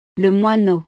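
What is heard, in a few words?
"le moineau", French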